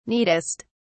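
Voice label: "neatest", British English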